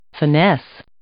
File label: "finesse", English